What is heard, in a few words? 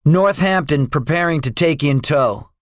debriefing, english; guadalcanal; War Thunder